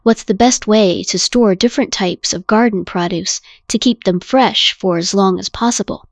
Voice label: en female; KE-Omni